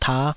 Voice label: "tah5", taiwanese Syllables